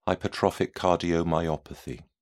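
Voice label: "hypertrophic cardiomyopathy", British English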